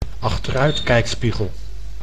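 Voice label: "achteruitkijkspiegel", Dutch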